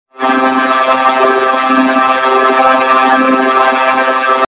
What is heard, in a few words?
helium sound effect